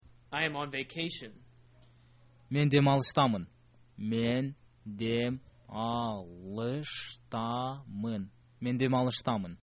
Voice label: i am on vacation, kyrgyz